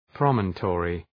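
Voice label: "promontory", English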